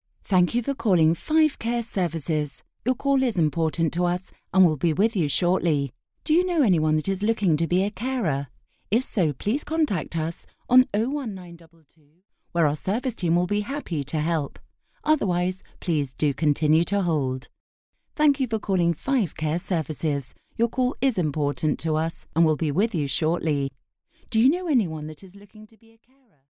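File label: Female English ivr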